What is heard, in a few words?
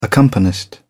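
"accompanist", British English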